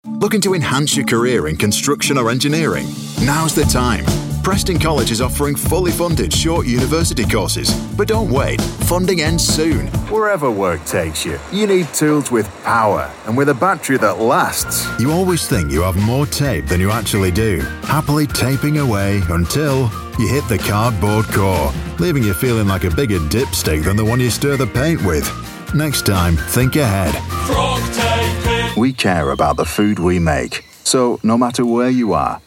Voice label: commercial